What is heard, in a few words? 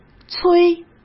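"催", Mandarin Chinese; hsk; chinese-flashcards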